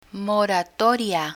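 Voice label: "moratoria" Spanish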